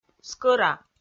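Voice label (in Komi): "скӧра", Komi, female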